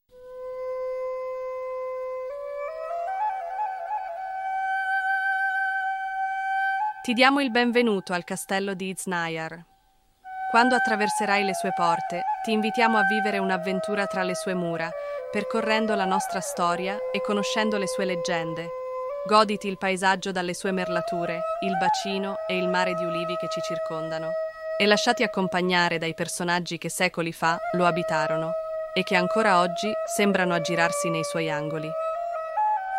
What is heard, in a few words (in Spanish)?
audioguia italiano; Ruta audioguiada